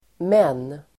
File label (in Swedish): "men", Swedish